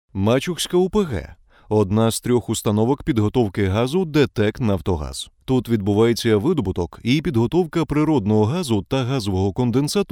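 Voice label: Ukrainian, male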